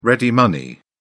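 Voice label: "ready money", British English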